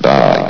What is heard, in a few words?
"die", vox; Source Engine; bshift